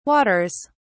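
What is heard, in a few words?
"waters", British English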